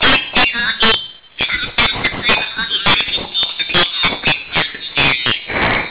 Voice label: doctorevil scrambled